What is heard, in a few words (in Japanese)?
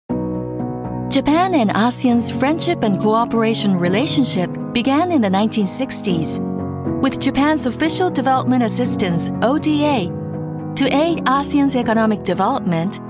知的、中音、心に響く; 外務省ASEAN